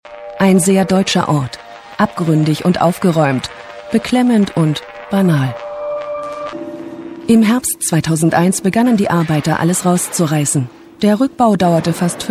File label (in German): Doku; ARTE; Metropolis; Bunker